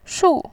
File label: "shu4", voice